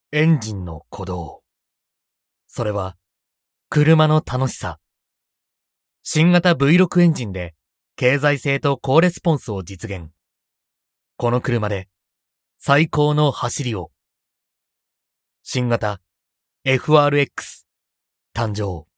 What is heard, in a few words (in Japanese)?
車ナレーション